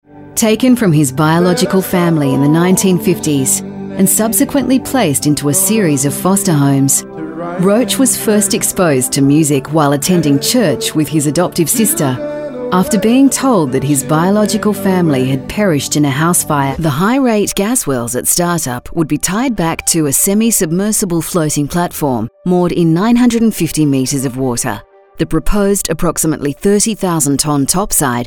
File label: Narration